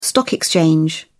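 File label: "Stock exchange", British English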